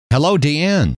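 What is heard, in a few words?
"hello", male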